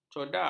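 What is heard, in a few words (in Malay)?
"codak", Malay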